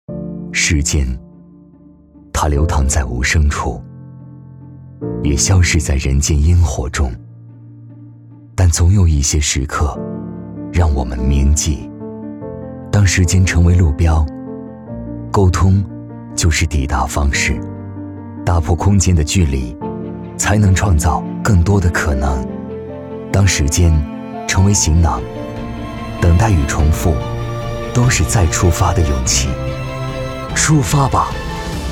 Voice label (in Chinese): 男 走心 由弱渐强; 再出发